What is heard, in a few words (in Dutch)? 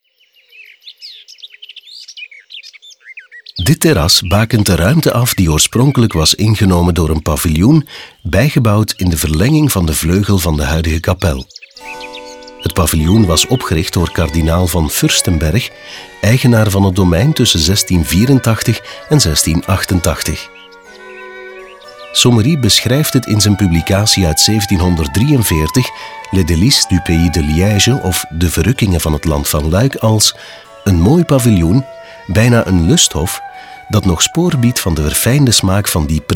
audioguide nl adulte; modave